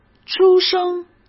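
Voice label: "出生", Mandarin Chinese; chinese flashcards; hsk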